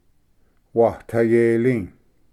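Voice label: "watayeli", Cherokee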